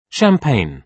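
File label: "champagne", British English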